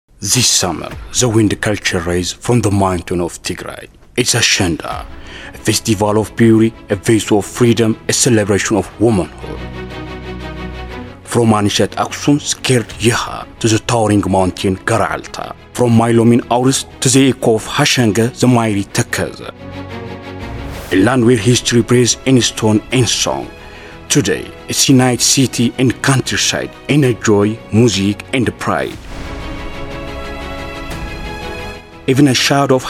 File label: English Tourism Voice Over